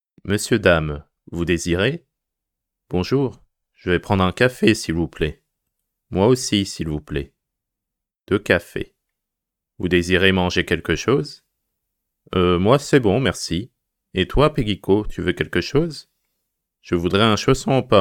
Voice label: conversation fast